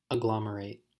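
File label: "agglomerate", American English; pronunciations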